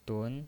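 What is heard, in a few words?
"dun" Mandarin Chinese, second tone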